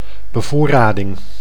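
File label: "bevoorrading", Dutch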